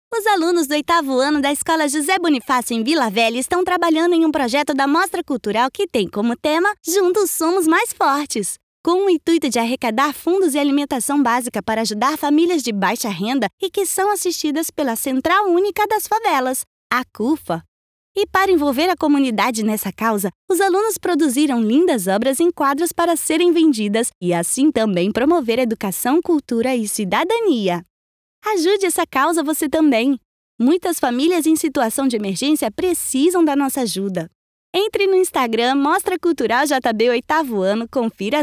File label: portuguese brazilian animation